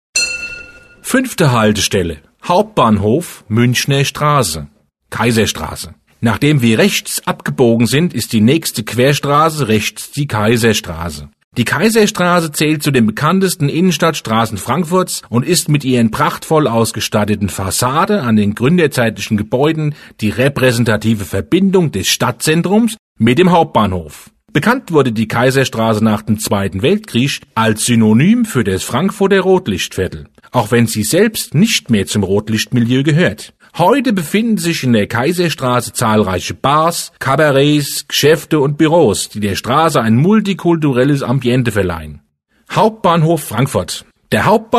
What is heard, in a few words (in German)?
hessisch; Hauptbahnhof Muenchener Strasse